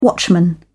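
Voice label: "watchman", British English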